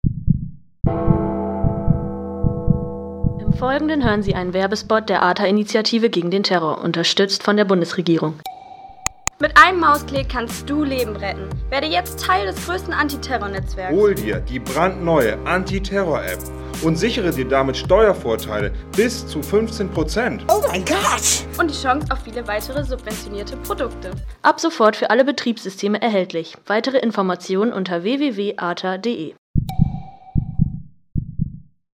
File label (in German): Radiospot; ATA